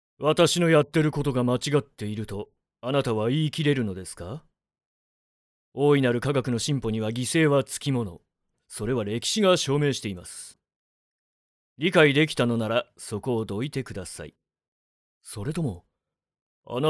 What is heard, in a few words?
male